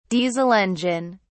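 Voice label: "diesel engine", British English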